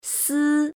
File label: "s", Chinese pinyin initial